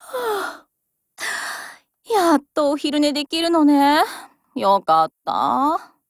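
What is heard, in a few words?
Japanese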